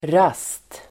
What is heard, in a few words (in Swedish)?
"rast", Swedish